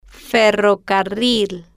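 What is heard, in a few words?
"ferrocarril", slow, spanish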